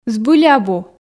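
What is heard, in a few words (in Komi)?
збыль абу, Komi, female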